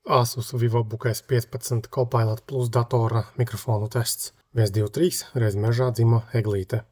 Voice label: mictest; Asus Vivobook S15; S5507; Copilot